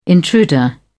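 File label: "intruder", English, uk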